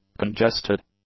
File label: "congested", English; adjectives